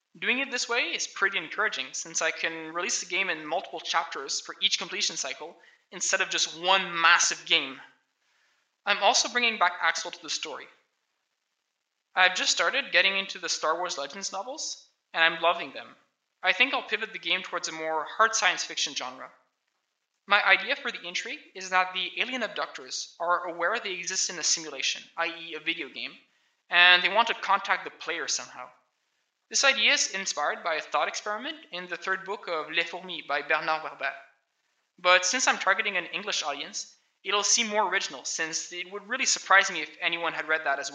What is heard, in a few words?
voice, narration